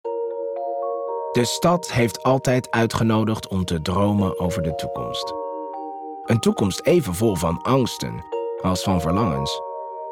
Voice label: Dutch narration